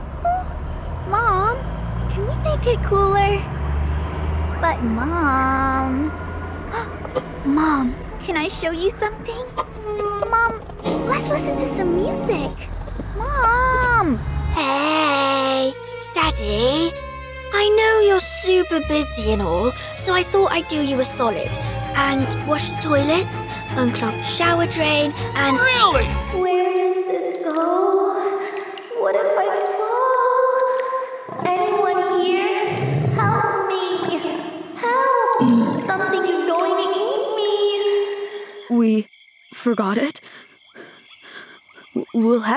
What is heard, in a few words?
Animation